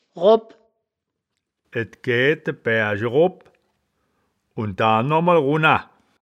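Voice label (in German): "robp", Wittlicher Wörterbuch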